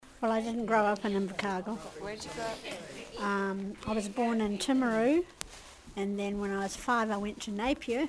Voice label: NZ Invercargill Senior; Australia-Oceania; New Zealander